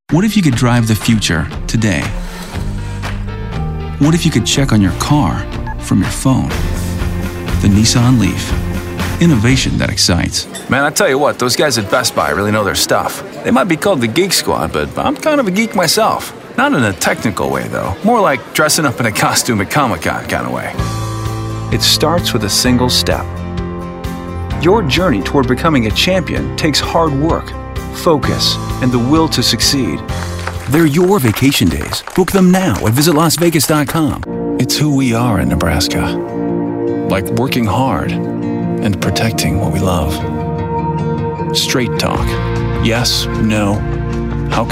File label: Commercial